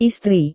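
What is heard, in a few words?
"istri", Indonesian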